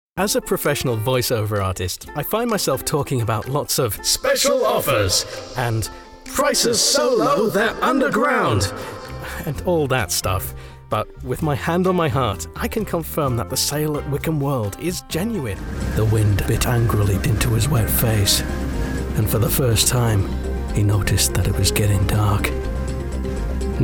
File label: Commercial